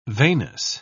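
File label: "veinous", British English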